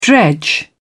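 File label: "dredge", British English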